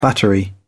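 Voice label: "battery", British English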